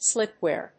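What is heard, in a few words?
"slip-ware", English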